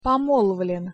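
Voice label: "pomolvlen", Russian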